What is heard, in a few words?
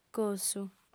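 "cossu", female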